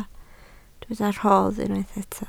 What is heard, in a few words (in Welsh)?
"rodd", Welsh